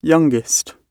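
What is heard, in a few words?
"youngest", British English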